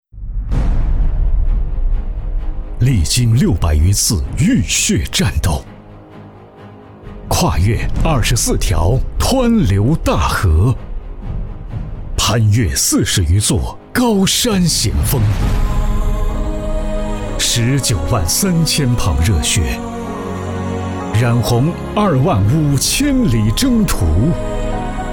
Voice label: 长征精神激情大气

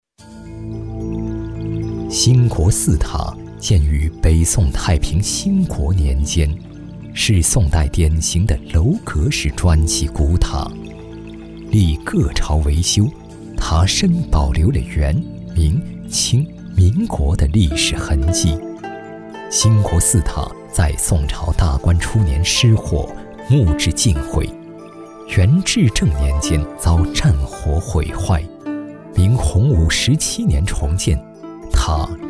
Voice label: 沧桑 讲述 寺塔; 兴国寺塔

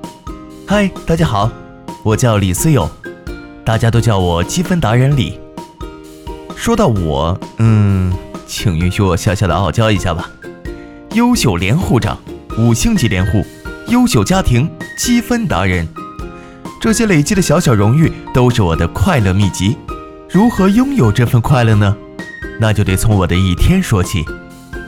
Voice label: 轻松自然

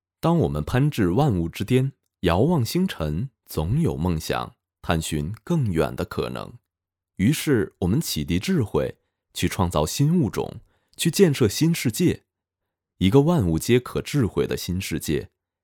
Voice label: 科技类广告

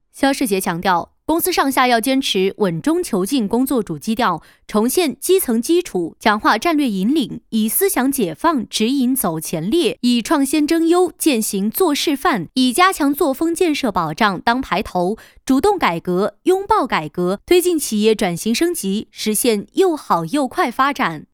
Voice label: 新闻

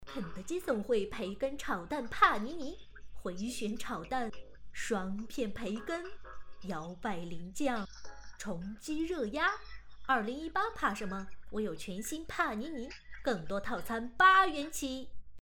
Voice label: 广告样音

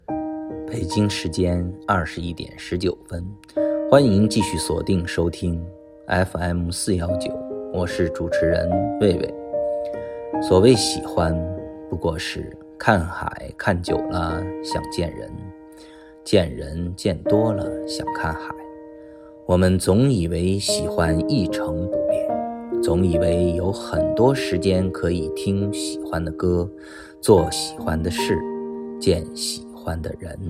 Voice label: 中文配音午夜电台

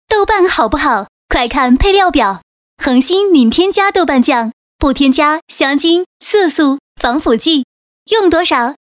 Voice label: 广告, 激情广告彩铃促销, 女声; 恒星添加豆瓣

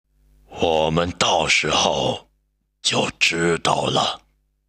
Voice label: 配音; 英雄联盟配音铁男

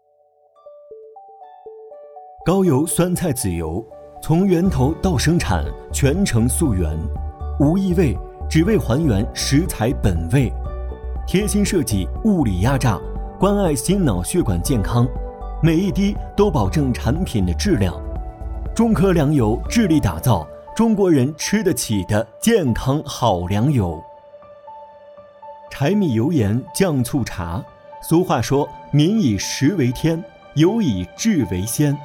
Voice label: 宣传; 朱军

